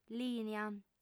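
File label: "lìnia", female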